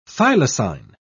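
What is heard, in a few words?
"thylacine", British English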